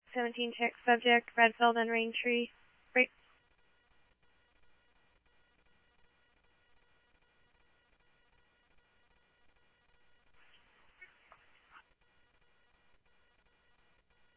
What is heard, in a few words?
Scottsdale Police, scanner; SCTSDLE PD - PD2; ThompsonPeakHigh